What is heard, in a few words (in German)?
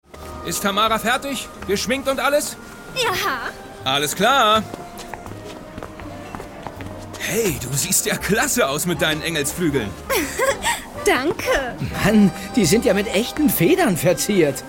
Hörspiel; Die Punkies; Rolle Tamara